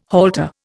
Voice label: "halter", Indian